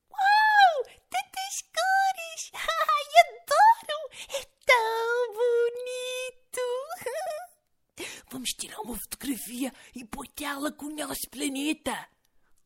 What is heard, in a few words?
Portuguese EU